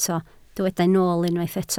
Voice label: "nool", Welsh